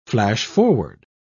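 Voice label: "flash-forward", British English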